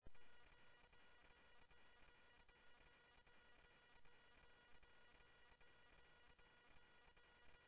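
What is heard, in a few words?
Sheriff West County, scanner audio; ThompsonPeakScot; MCSO - WEST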